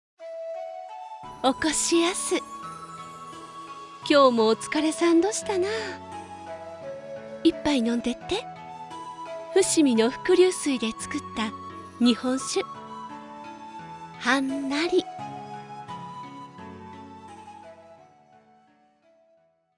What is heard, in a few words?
female